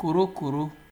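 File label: "korokoro", Nigerian Pidgin, male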